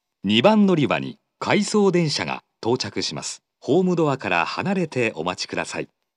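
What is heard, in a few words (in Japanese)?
接近-回送列車, 2番のりば放送; 福岡市地下鉄 空港線・箱崎線 中洲川端駅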